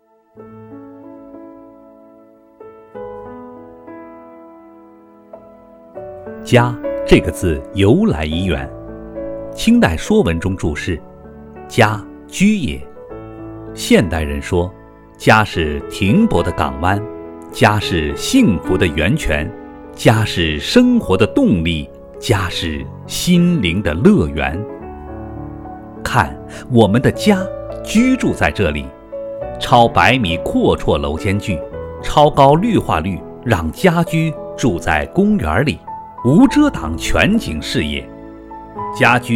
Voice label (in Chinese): 男 专题 解说 家, 国语男声配音